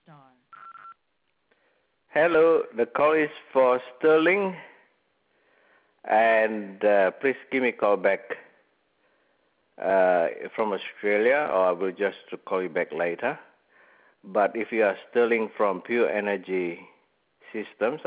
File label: phone message; juvenile message; regarding a rather tame review on the PES wiki